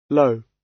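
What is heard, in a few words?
"low", British English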